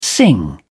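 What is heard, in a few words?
"sing along", British English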